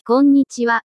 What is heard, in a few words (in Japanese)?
読み上げアプリ こんにちは